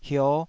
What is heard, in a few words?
"hio5", taiwanese